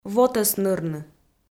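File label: "вотӧс нырны", Komi, female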